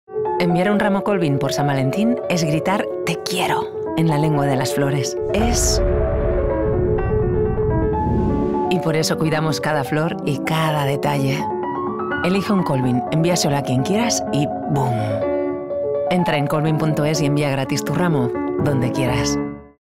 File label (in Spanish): spot San Valentin; ROMÁNTICO